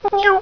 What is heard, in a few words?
Web Search, Cantonese girl; ICQ